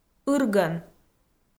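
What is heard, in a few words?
"ыргӧн", Komi, female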